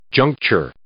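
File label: "juncture", English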